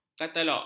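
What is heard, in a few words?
"katalog", Malay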